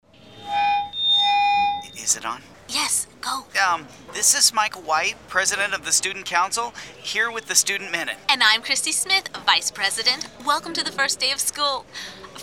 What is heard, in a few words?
RADIO; K-SWISS